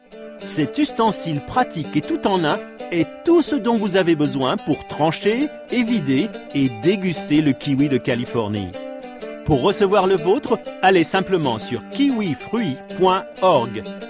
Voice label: Promotion commercial, French Voiceover; Marketing